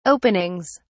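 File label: "openings", British English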